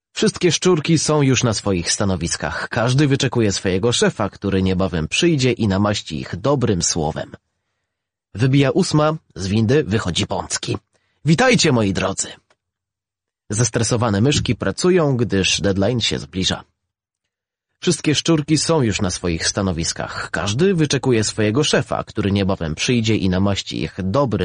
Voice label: 波兰语男, 外语; 小语种

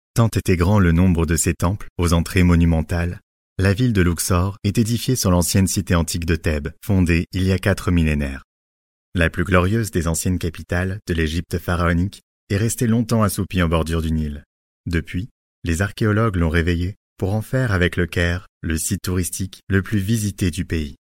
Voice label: 法语男, 外语, 小语种

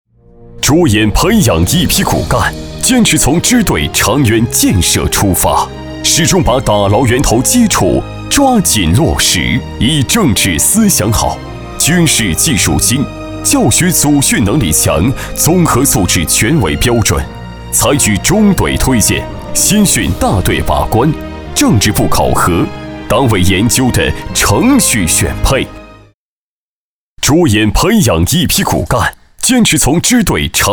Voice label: 专题 政府 部队 激情

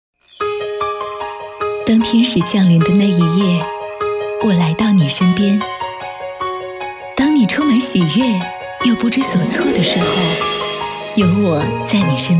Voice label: 广告 银行 优雅; 中国农业银行

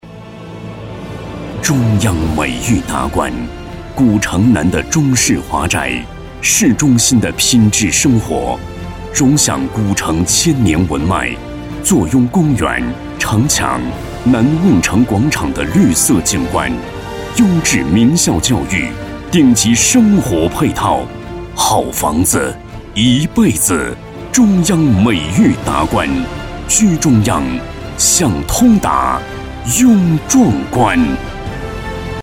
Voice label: 广告, 地产, 大气; 中央美域

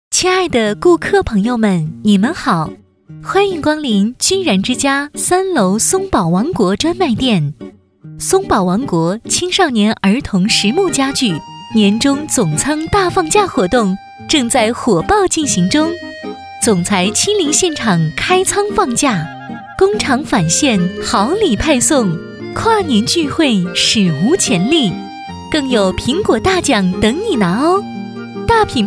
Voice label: 广播提示 店内广播 亲切; 居然之家店内广播

